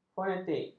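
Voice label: "fonetik", Malay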